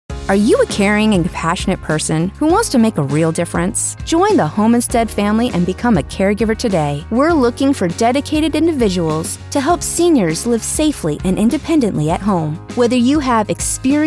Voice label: Radio Commercial; Home Instead; Careers